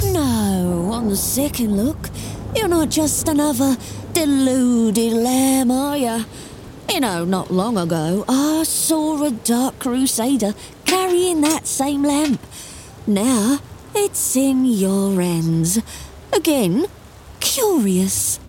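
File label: cockney suspicious cult villain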